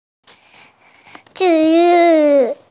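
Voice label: "treatment", hearing impaired; zhi4yu4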